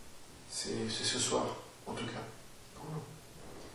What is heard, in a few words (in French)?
EVP; gruyeres; salon coreau